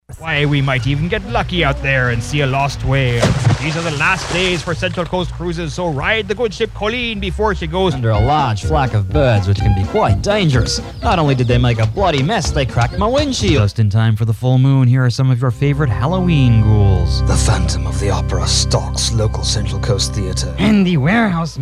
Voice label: Character Vox